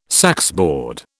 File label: "saxboard", British